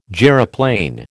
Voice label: "gyroplane", American

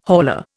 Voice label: "hauler", Indian

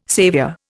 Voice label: "saviour", Indian

